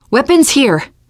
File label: spot weapons, teengirl, voice; player; survivor